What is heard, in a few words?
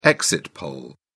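"exit poll", British English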